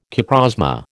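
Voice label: "coprosma", American English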